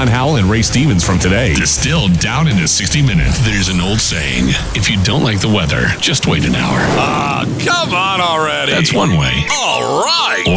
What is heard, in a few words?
NEWS TALK